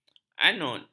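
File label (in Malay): "anut", Malay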